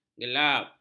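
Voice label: "gelap", Malay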